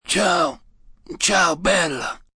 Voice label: "Ciao, Ciao Bella", Goodbyes, male, foreign, Italian; Greetings And Goodbye; (goodbye beautiful); VoiceBox